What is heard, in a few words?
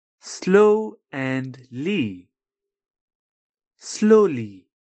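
"slowly", English; properties of objects new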